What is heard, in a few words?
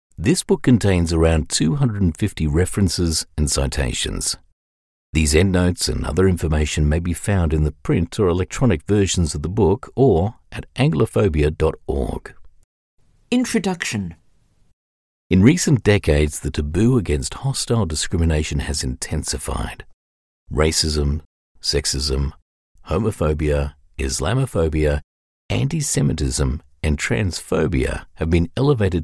english australian audiobooks